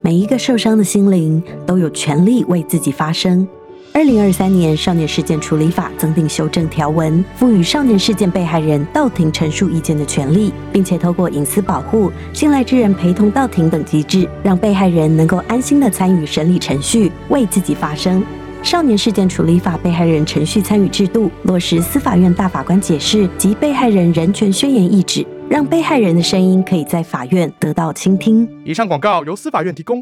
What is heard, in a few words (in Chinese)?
少年事件被害人程序參與制度 國語; 司法院